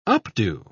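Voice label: "updo", British English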